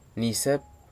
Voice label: "nisɨp", Nafe (Kwamera); Vanuatu; plant names